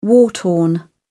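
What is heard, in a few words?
"war-torn", English, British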